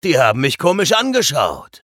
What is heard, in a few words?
vo chatter positive; eisen; frag